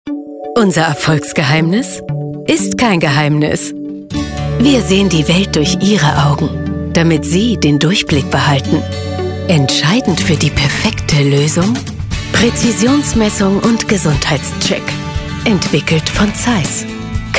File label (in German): Imagefilm